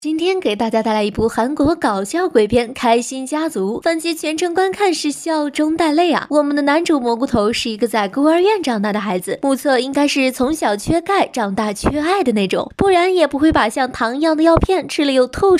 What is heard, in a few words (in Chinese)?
配音